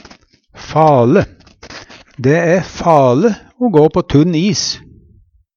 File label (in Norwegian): faLe, Numedalsmål; Dæ æ fale o gå på tunn is.; Adjektiv